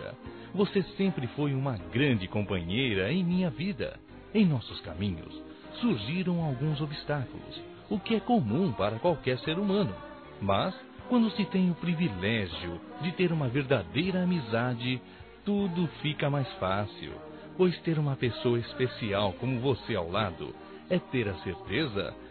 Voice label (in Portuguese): NATAL P AMIGA, masc; Telemensagens; Natal e Ano novo